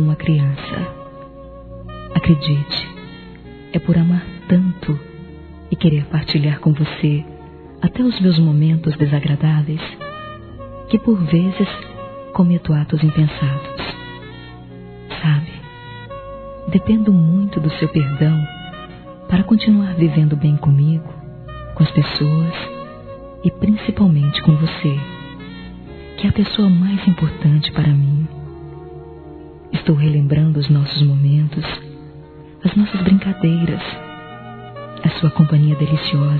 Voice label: preciso de suas desculpas f; Telemensagens; fem; Telemensagens 2017

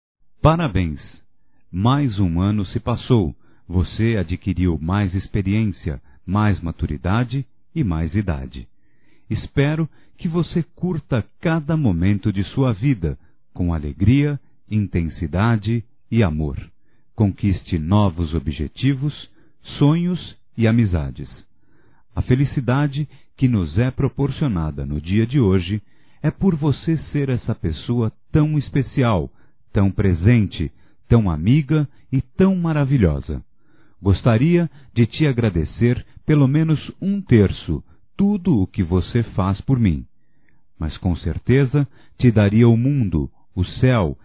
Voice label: Te Amo Masculino, Telemensagens; Amor; Telemensagens 2017

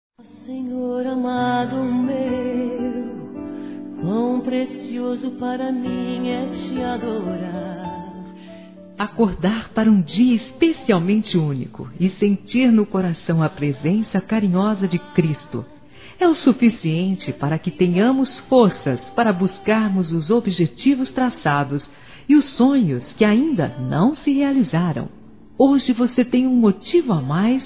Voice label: ANIV GERAL (F), Telemensagens Aniversários Evangelico Fem

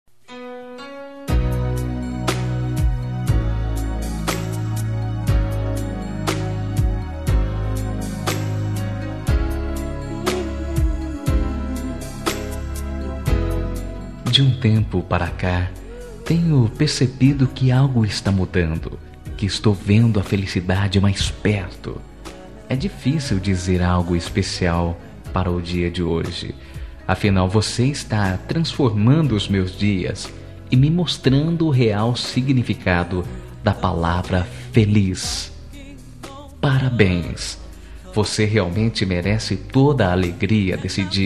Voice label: ROMANTICO SUAVE; Telemensagens; Dia Dos namorados; masc; Telemensagens 2017

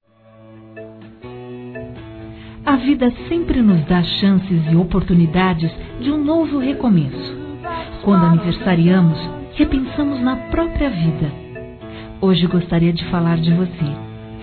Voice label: Amiga Feminino, Telemensagens; Aniversários; Aniversário de Amigos

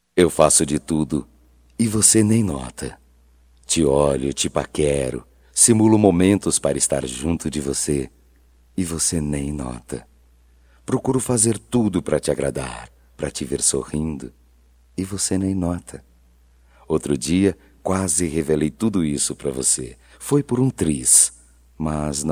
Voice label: Paquera Masculino, Só Voz; Telemensagens; Telemensagens 2017

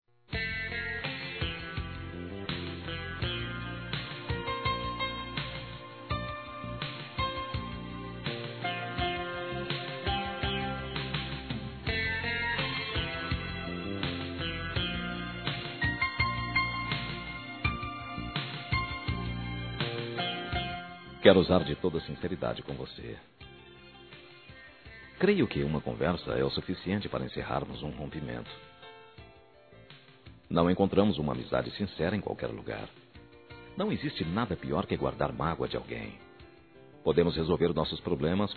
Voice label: preciso ficar de bem, masc; Telemensagens 2017

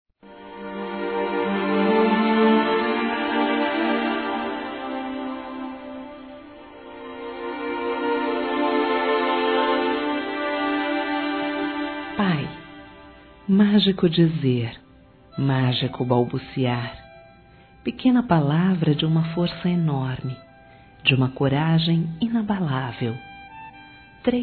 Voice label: PARABÉNS PAPAI F; fem

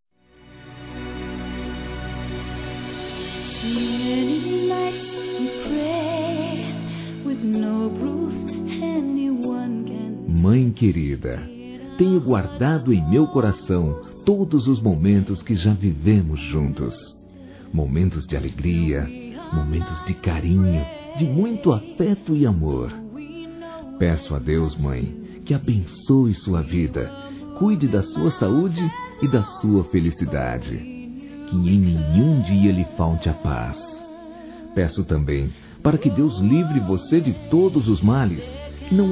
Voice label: ORAÇÃO PELA MÃE, Masc; Dia das Mães; Telemensagens 2017

